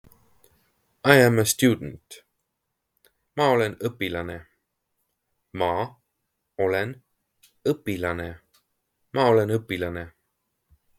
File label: I am a student, Estonian phrasebook; the talking estonian phrasebook